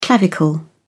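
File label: "clavicle", English, uk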